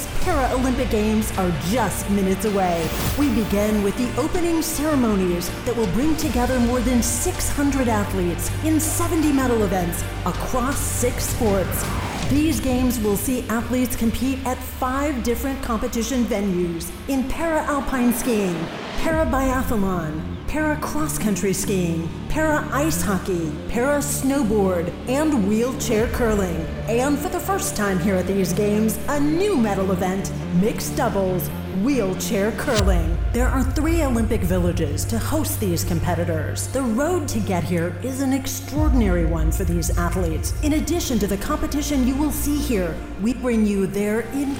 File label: LIVE ANNOUNCE